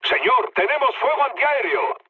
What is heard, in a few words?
damaged aa, mood low, speech; War Thunder